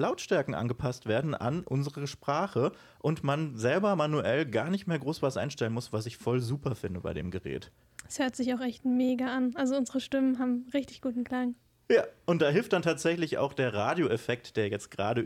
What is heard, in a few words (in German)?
vocaster zwei sprecher; Focusrite Vocaster Two